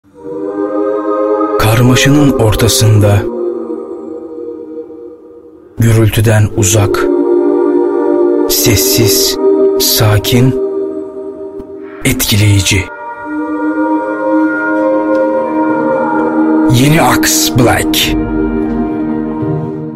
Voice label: Turkish, Male